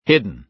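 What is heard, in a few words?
"hidden", American English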